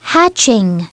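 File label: "hatching", English; SoftKey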